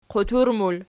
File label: "qutur", Archi